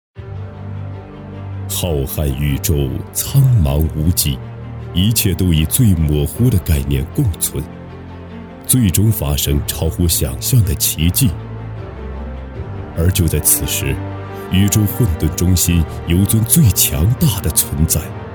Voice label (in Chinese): 浑厚旁白远古人物; 盘古